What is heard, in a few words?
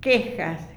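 "queja", Spanish, La Plata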